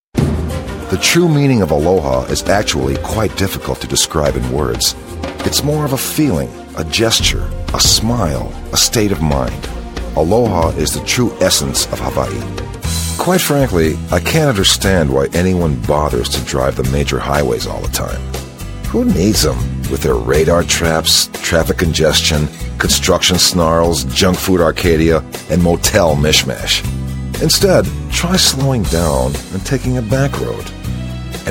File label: Men Narration